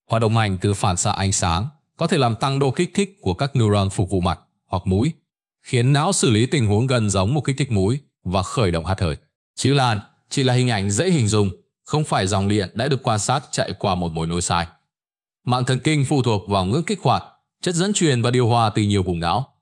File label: narration; Vi Sao Anh Nang Co The Khien Ban Hat Hoi; StickerMan